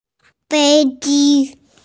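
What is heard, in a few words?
"fei1 ji1", Mandarin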